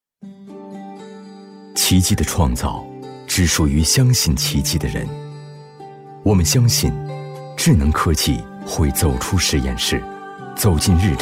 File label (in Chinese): 男 TVC 走心 旁白; 荣威